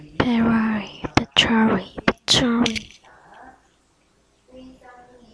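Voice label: "battery", spoken word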